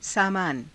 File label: "saamaana", hindi